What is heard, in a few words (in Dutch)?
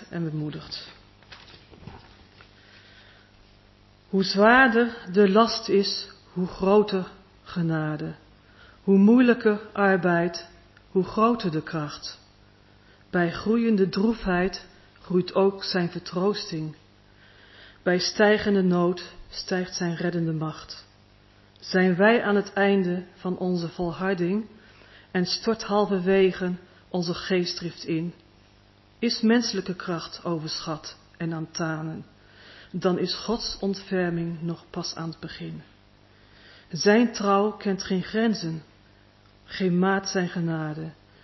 Gedicht, afscheidsdienst